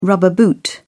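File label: "rubber boot", British English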